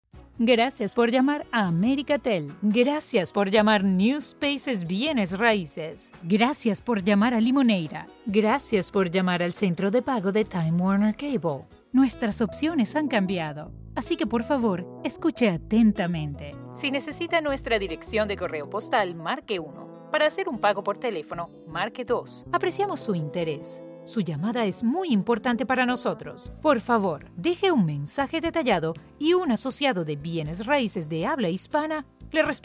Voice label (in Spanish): Telefonico